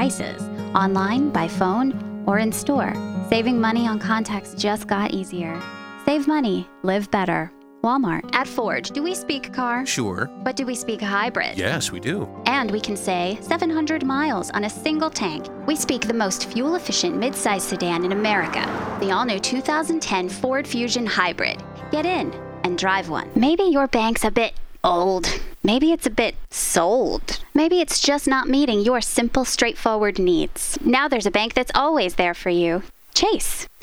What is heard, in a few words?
Commercial